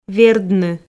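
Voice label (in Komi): "вердны", Komi, female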